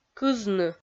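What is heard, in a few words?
"кызны" Komi, female; kpv audio dict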